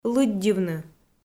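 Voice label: "лыддьывны", Komi, female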